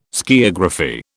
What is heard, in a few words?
"skiagraphy", British